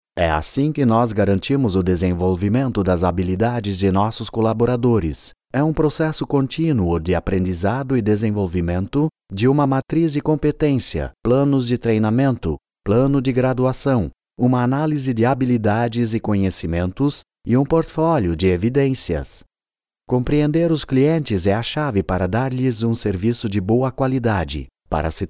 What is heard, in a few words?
brazilian corporate